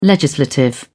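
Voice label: "legislative", British English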